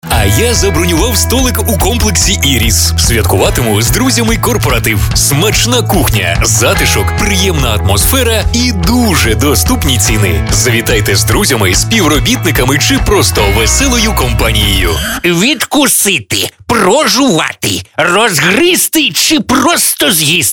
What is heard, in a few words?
ukrainian commercial